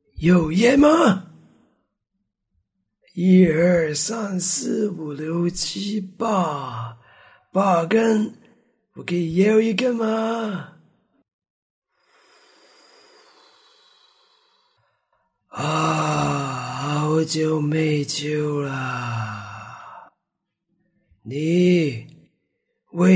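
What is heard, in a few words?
Chinese, drama, Mandarin; GoLocalise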